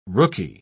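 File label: "rooky", English, British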